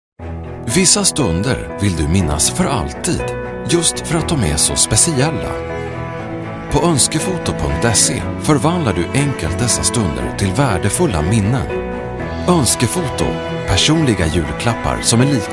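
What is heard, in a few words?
Swedish, male, explainer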